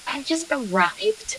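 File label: voice acting, es, female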